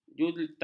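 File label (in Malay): "juita", Malay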